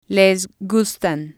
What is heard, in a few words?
"les gustan", spanish